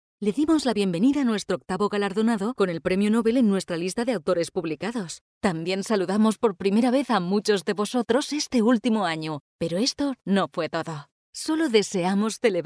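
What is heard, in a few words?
Spanish Spain female upbeat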